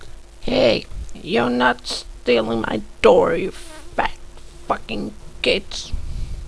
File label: old lady